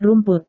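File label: "rumput", Indonesian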